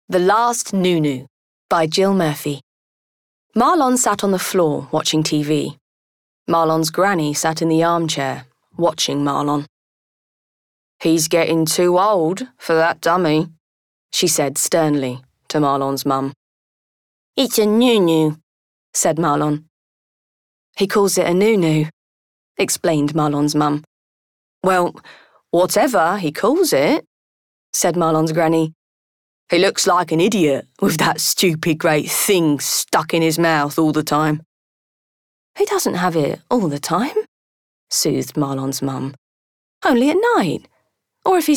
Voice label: Audiobook; The Last Noonoo

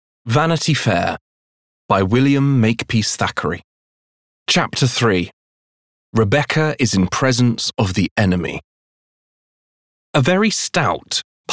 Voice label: Audiobook; Vanity Fair

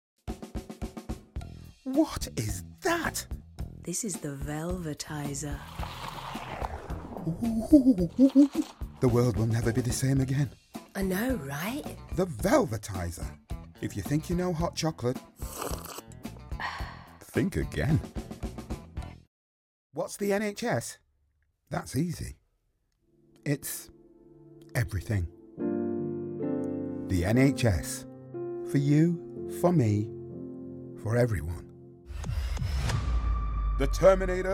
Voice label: commercial reel

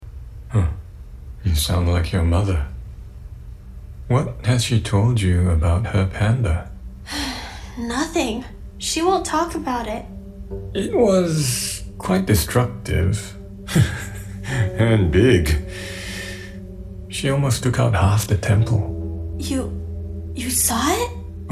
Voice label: DAD DIALOGUE; TURNING RED

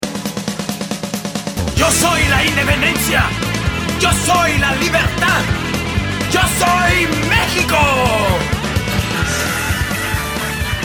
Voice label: Spanish lang

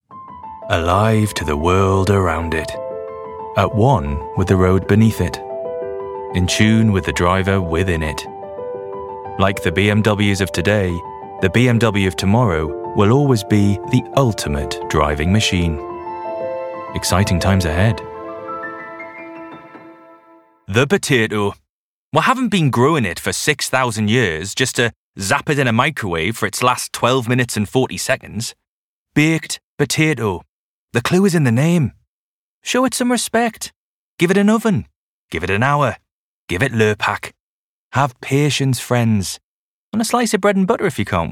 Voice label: Commercial Reel

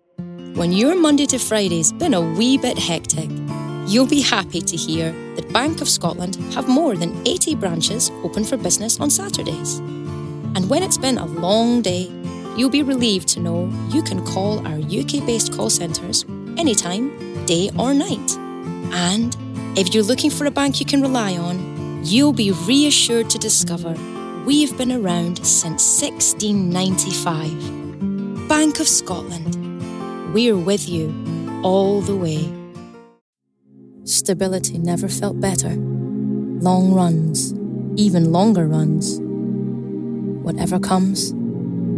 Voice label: COMMERCIAL REEL

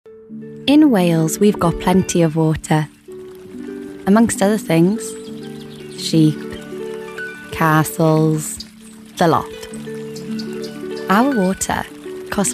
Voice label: Commercial Reel

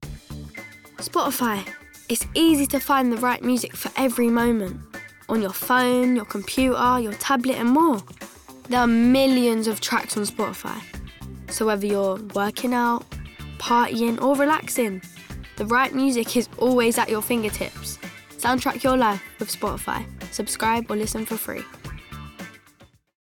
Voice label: Ad; Spotify; SPOTIFY COMMERCIAL

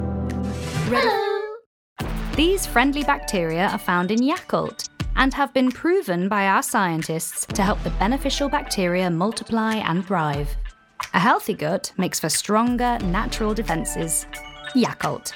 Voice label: Commercial; Yakult

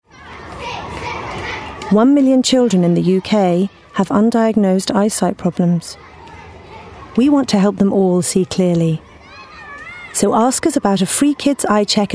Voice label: commercial; Boots

